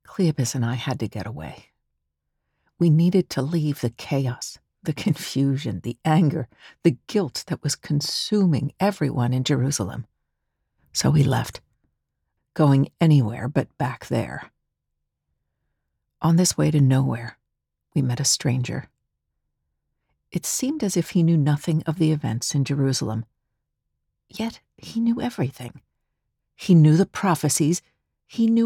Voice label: Bible Drama